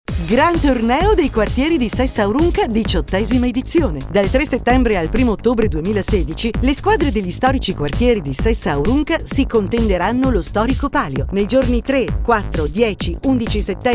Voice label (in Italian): spot radiofonico; Radio Antenna Verde